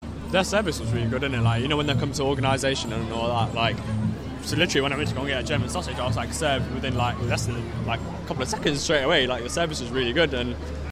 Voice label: voxpop service